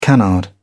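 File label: "canard", British English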